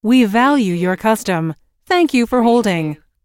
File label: US female phone prompt We value your custom - Thank you for holding; lynne publishing